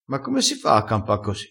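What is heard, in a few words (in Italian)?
"campa", dialettale crespinese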